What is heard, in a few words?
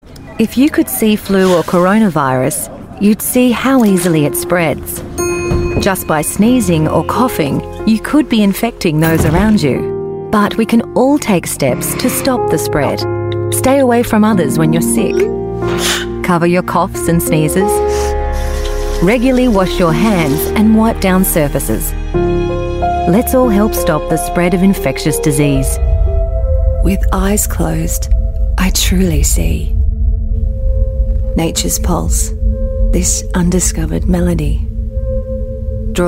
AU English TVCs